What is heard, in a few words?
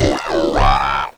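effects talk, Funky Vocals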